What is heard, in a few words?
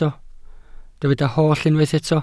"holl", Welsh